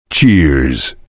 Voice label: soldier cheers